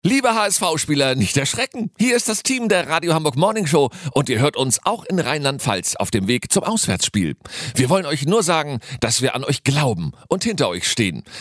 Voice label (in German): Motivationsspot, Radio; HSV; Radio Hamburg